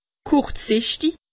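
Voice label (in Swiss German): "kurzsichtig", Alsatian; medecine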